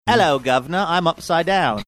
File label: saying ello guvnor im upside down cockney